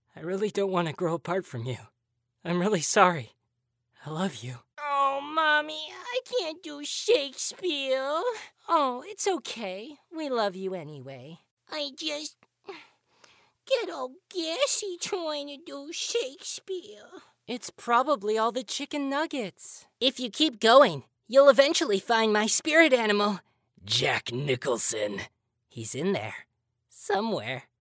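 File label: Canadian, male; voice over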